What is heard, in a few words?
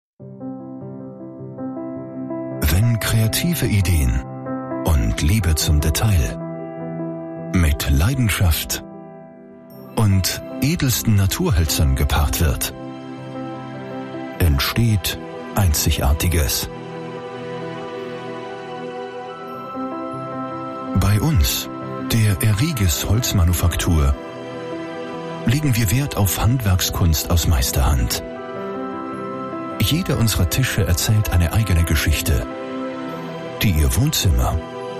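Austrian German General, voice demos; GoLocalise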